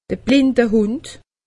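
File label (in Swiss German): "blindehund", Alsatian; medecine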